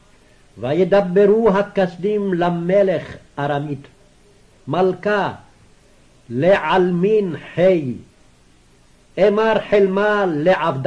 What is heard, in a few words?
Daniel Reading Practice, Aramaic